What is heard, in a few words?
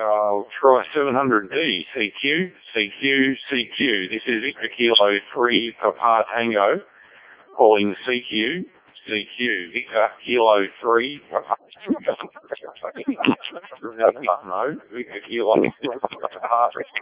decoded speech, 7177KHz